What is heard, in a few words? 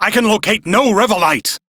Crew Need Revolite, Male; Warframe; Railjack Crew; Arbiters Hexis